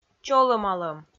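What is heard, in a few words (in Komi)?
"чолӧмалӧм", Komi, female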